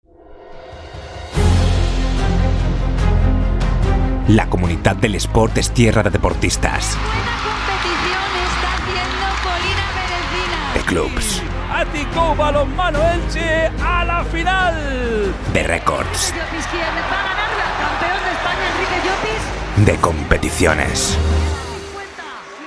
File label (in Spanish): PROMO VOCES; COMUNITAT